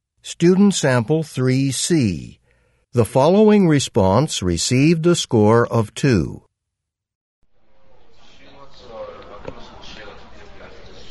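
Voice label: japanese conversation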